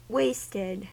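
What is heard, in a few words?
"wasted", American English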